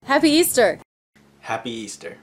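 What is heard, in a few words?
Happy Easter American pronunciation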